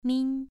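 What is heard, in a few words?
"min", Mandarin Chinese syllable, second tone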